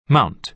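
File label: "mount", American English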